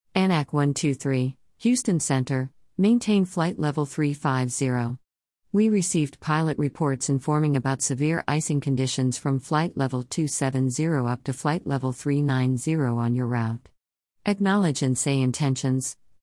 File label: ATC Belly landing